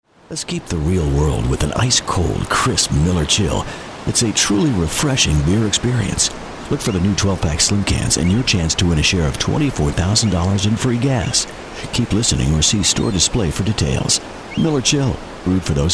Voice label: mellow